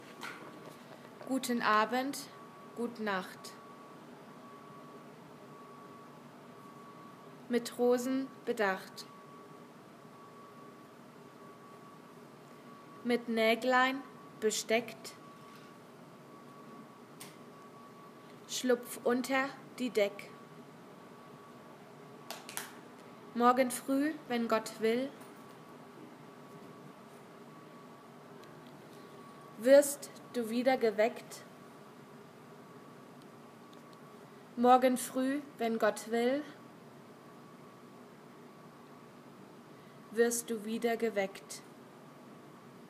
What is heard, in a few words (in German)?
wiegenlied line by line